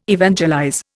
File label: "evangelize", Indian